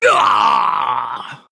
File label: Male Human Death